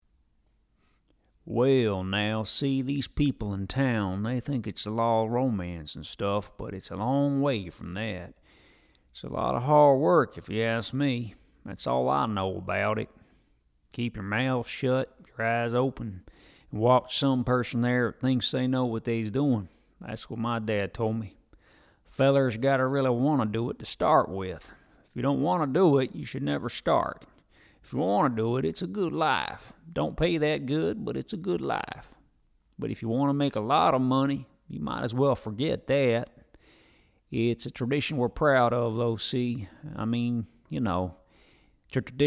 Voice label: TEXAN COWBOY

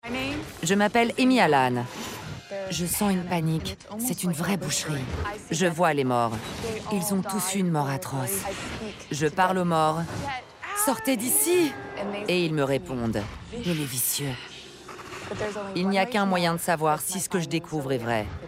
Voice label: Voice over Medium; Furon Dead files

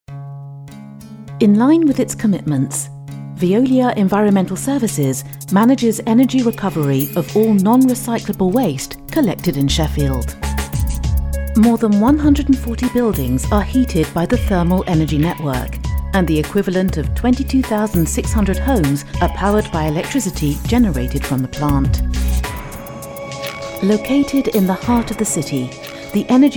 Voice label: corporate; veolia

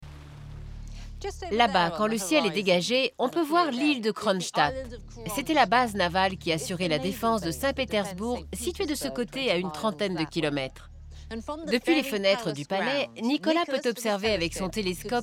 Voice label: VOICE OVER

